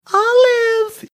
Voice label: saying olive high pitched voice

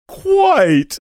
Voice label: saying quite funny

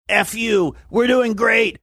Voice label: eff you we're doing great gruff

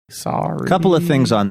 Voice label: quietly saying "sorry"

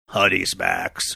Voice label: honey smacks deep voice

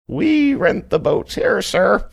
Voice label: saying weren't boats here funny

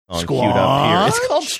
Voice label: saying squanch funny

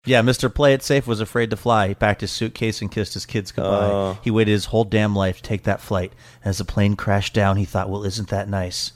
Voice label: reciting portion

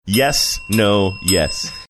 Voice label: saying yes no yes dinging bell for each word

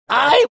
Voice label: "I" funny

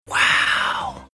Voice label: whispery wow

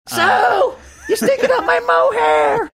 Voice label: saying so youre stinking up my mohair funny

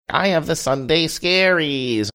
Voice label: saying i have the sunday scaries funny

